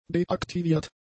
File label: "deaktiviert", German; adjectives